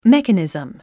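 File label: "mechanism", English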